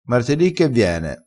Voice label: "che viene", crespinese

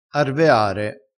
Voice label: "arveare", crespinese

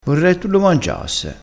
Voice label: "mangiasse", crespinese

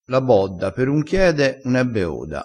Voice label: "la bodda", vocabolario dialettale

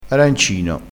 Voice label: "arancino", crespinese

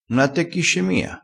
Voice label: "attecchi", crespinese

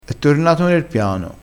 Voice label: "piano", vocabolario dialettale

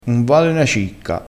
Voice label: "cicca", crespinese

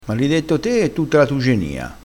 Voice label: "malidetto", crespinese, vocabolario dialettale

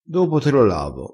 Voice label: "pila", crespinese

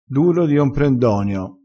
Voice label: "duro di omprendonio", crespinese, vocabolario dialettale

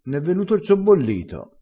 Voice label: "sobbollito", vocabolario dialettale

